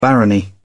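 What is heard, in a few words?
"barony", British English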